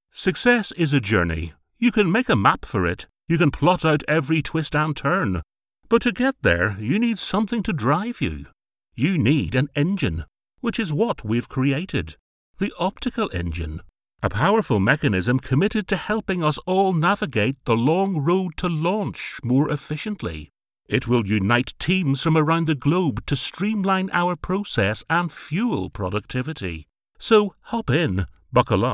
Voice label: Video Narration Male English